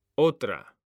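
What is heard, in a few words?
"otra", Spanish; mekanta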